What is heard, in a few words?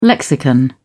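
"lexicon", British English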